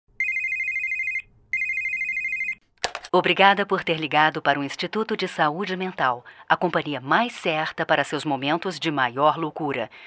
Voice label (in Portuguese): call center